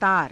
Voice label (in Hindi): "taara", Hindi